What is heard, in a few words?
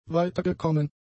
"weitergekommen", German; adjectives